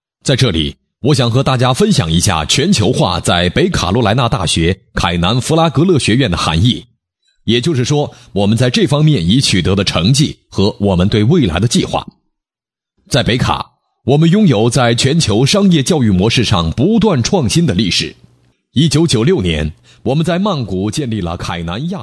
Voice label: Chinese Mandarin Professional male, Foreign Voice Talent